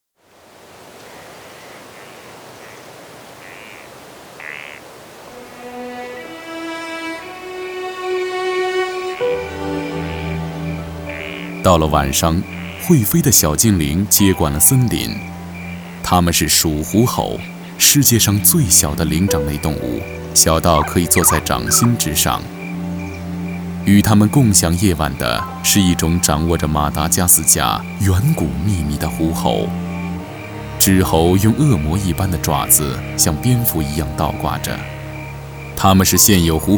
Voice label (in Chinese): 男国 纪录片 自然科教